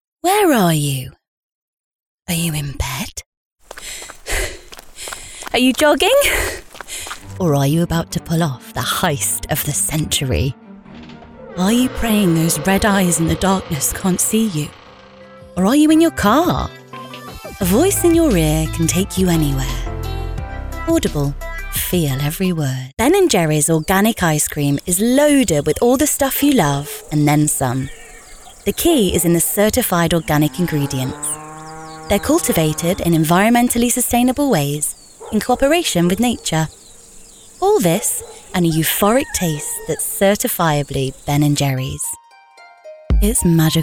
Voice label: female english commercial compilation; English northern accents